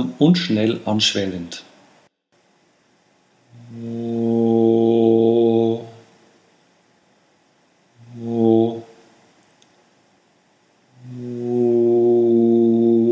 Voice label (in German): Weicher Stimmeinsatz Vokale